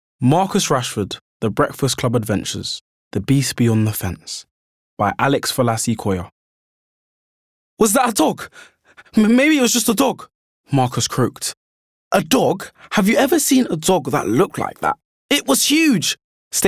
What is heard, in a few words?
Narration